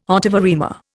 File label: "ottava rima", Indian